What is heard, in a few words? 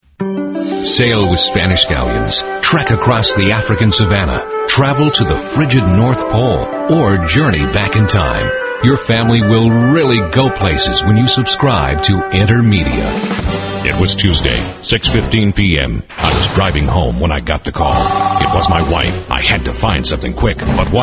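Male Talent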